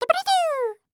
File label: Cartoon Voice Squeaky; AdobeCC; Dippity Doo